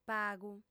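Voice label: "pagu" Sardinian, female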